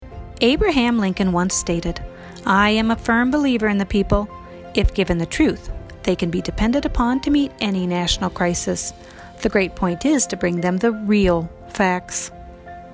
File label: radio spot